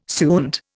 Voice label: "suint", Indian